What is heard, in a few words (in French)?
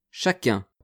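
"chacun", French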